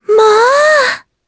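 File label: Vox Happy Japanese; Laias; kings raid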